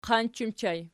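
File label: "qanch" ergative plural, Archi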